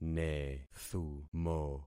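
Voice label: "nethumo", concatenated syllables; Affixing experiment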